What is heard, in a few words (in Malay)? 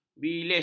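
"bilis", Malay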